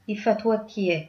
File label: i love you, tigrinya